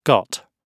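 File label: "got", British English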